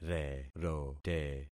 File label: "rerote", concat syllables; Affixing experiment